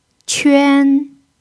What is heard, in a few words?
"quan1", chinese pinyin